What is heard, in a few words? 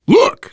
look, voice, biker; Left 4 Dead 2; survivor